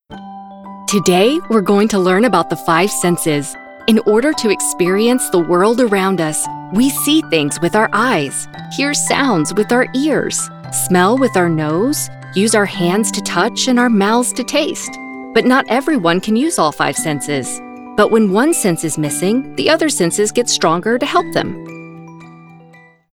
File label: E Learning KIDS